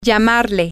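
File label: to Call Him Her You, Spanish, female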